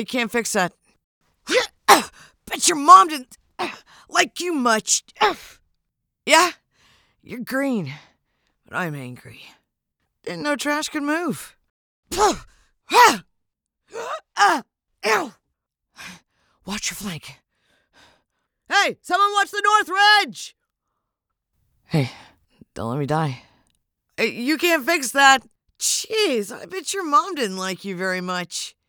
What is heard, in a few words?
Recruit Female